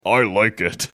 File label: saying i like it in deep weird voice